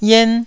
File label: "yen1", taiwanese Syllables